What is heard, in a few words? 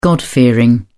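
"god-fearing", British English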